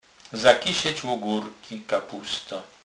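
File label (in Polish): "zakysec", Kurpie dialect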